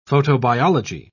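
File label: "photobiology", British English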